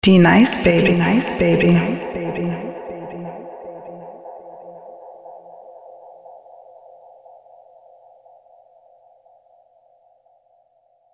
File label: DROP WITH ECHO; DJ; DJ D-Nice